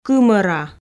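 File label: "кымора", Komi, female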